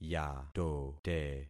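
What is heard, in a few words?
"yatote", concatenated syllables; Affixing experiment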